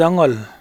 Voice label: shilluk a ngol high fall